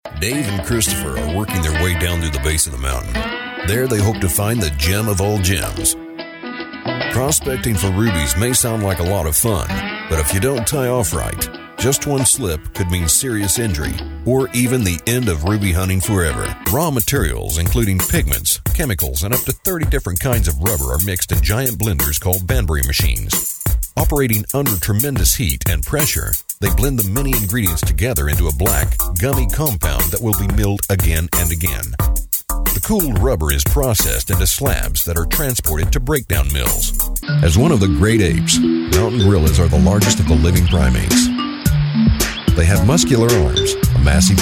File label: narration